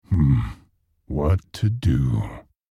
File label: thinking